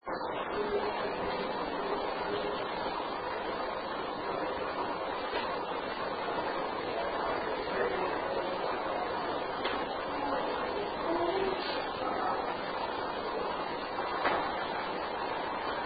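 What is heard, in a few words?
Unexplained Inaudible Female voice; Case 020 Ballard Building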